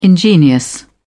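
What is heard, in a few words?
"ingenious", British English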